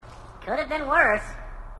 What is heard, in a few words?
grunt dopey; h2dialogue